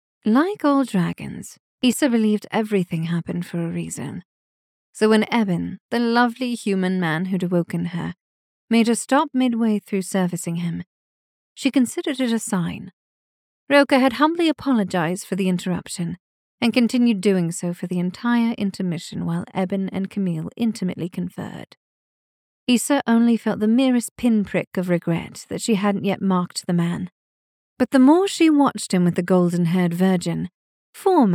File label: British Accent